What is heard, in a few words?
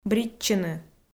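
"бритчыны", Komi, female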